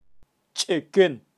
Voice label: "cheken", kaqchikel, pronunciacion de animales

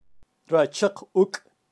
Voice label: "rachaq uk", kaqchikel, pronunciacion de animales

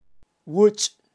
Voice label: "wuch" tacuacin, kaqchikel, pronunciacion de animales

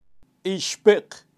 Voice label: "ixpeq" sapo, kaqchikel, pronunciacion de animales

